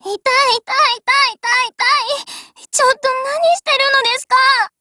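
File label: break, voice, Japanese; GF Alarm; C96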